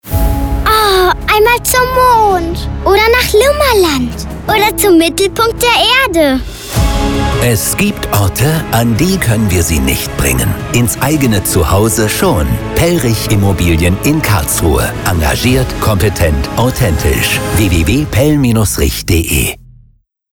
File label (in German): Radiospot; Neue Welle Kampagne 2021; Pell Rich Immobilien